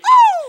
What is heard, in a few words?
"oh" hi pitch